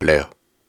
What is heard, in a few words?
Soft Syllables and Imaginary Words, Viking Vocal